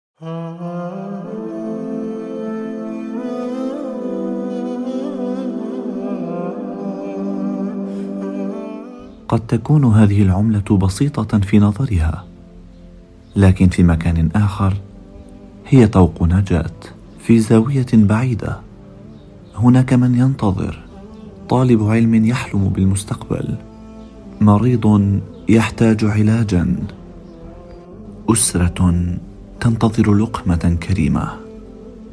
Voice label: arabic fatwa zakat